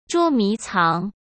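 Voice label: "捉迷藏", Mandarin Chinese; chinese-flashcards; hsk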